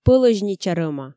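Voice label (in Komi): "пӧлӧзнича рӧма", Komi, female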